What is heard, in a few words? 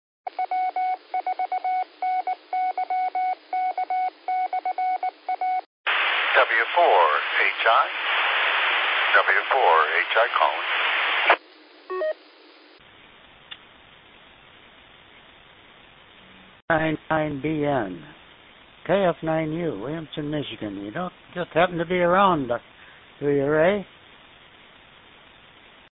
qso rec simplex logic